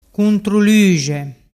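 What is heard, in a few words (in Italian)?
"cuntruluxe", Vernazzese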